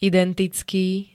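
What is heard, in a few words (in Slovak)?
"identicky", Slovak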